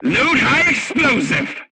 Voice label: voice message load HE, crew tanks portuguese